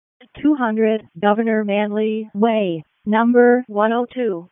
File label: EMS ALERT; wake; p25